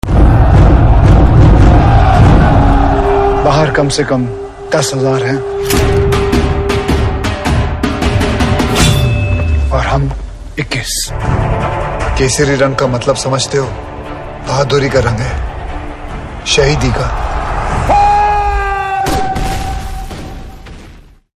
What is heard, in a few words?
Dialogue Ringtone; Kesari; Akshay Kumar